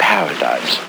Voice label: LoFi Words, LoFi Vocals